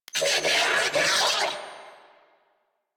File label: Player Spotted, Female; New War; Narmer Grineer